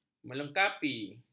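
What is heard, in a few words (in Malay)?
"melengkapi", Malay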